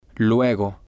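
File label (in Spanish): "luego", spanish, male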